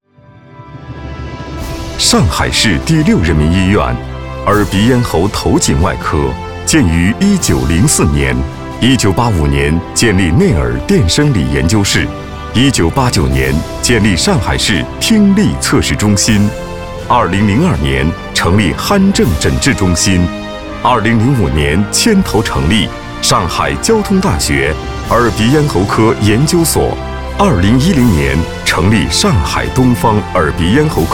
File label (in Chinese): 医院样缩混; 上海市第六医院